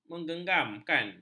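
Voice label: "mengegamkan", Malay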